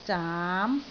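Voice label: "zaam2", Cantonese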